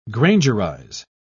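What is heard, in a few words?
"grangerize", English, British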